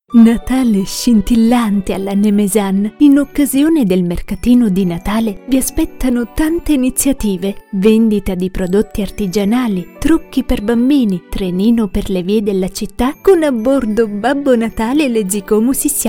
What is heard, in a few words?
Radio Adverts